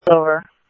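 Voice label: Simulcast Chandler Patrol A, scanner; Chandler-Ptrl A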